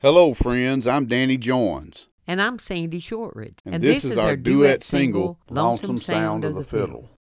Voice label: Radio Liner